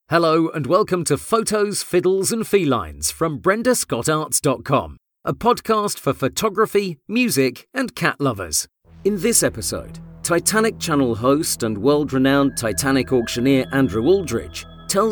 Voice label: english british podcasts